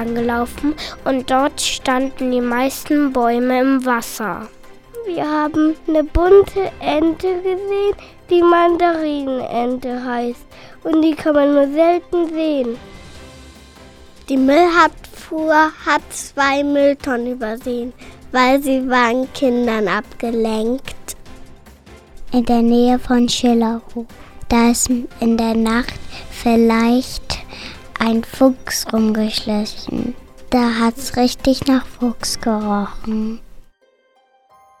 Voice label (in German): nachrichten; kita landgrafenstieg